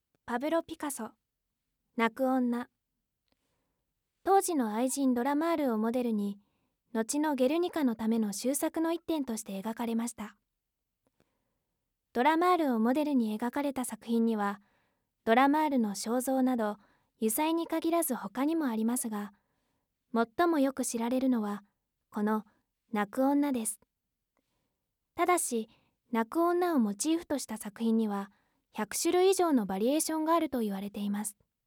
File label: female